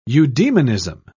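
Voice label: "eudemonism", British English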